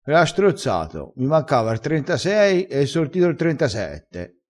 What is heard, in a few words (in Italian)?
"strozza", vocabolario dialettale crespinese